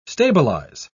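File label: "stabilise", British English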